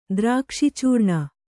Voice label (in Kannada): "ದ್ರಾಕ್ಷಿಚೂರ್ಣ", Kannada